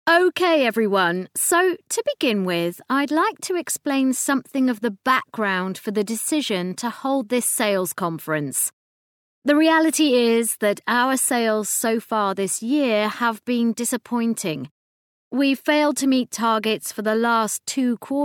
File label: business speaking lesson plan audio; linguaskill